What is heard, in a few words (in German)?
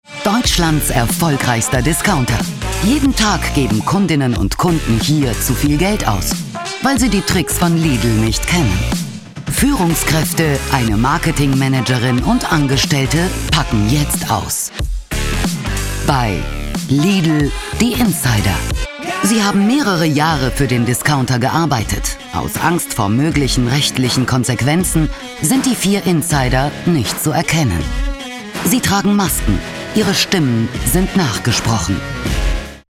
Doku; Lidl